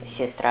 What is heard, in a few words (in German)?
"sestra", Suedrussischen, Akanje